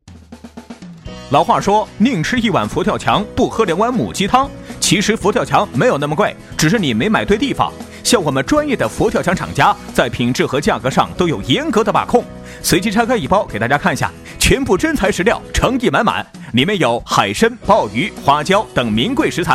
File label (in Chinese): 抖音信息流; 佛跳墙